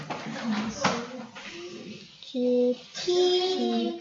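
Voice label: "zip", spoken word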